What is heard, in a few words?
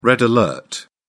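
"red alert", British English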